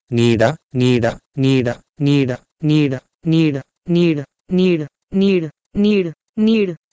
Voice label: Sanskrit "niiDa" to Hindi "nir"